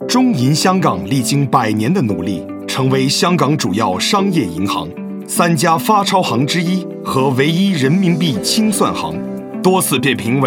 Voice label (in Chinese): 普通話男聲 Bank Corporate